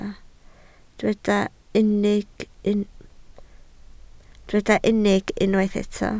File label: "unig", Welsh